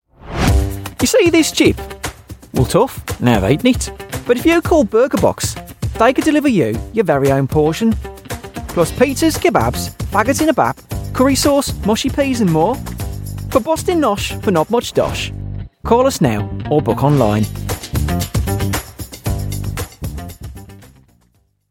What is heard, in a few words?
west midlands